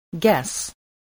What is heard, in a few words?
"guess", spelling